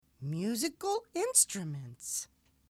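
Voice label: English MUSICAL INSTRUMENTS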